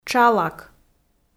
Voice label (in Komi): "тшалак", Komi